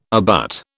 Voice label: "abut", British

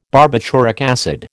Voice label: "barbituric acid", American

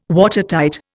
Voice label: "Watertight", Indian

